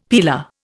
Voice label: "peeler", Indian; Dictionary

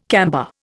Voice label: "camber", Indian

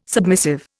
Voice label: "submissive", Indian

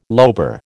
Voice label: "lobar", American

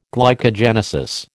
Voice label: "glycogenesis", American

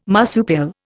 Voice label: "marsupial", Indian

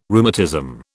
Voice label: "rheumatism", British

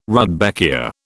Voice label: "rudbeckia", British

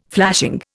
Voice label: "flashing", Indian

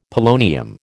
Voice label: "polonium", American

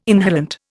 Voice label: "inhalant", Indian

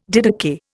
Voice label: "didakai", Indian

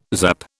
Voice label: "zap", British

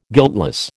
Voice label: "guiltless", American

